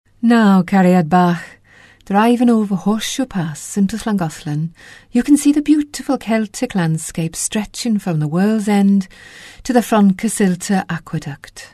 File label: welsh accent